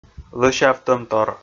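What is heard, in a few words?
"лӧсявтӧмтор", Komi, male; kpv audio dict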